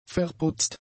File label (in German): "verputzt", german; adjectives